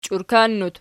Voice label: "chiurkannut", Archi